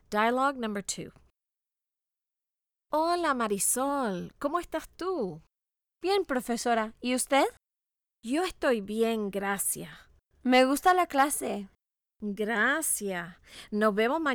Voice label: Dialogue, Spanish